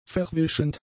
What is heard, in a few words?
"verwischend", German; adjectives